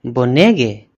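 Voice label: awesome, Finnish; gcompris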